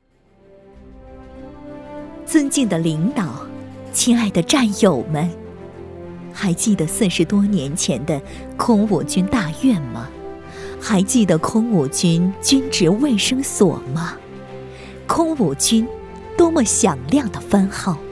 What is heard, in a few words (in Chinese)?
尊敬的领导女-大气激昂